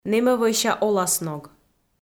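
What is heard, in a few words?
"нэмӧвӧйся оласног", Komi, female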